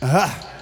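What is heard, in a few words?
"uh ah", male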